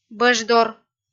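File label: "бӧждор", Komi-Zyrian, female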